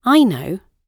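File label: "i know", British English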